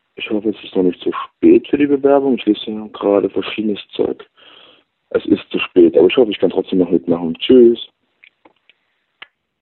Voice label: Voicemail